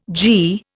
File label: "gie", Indian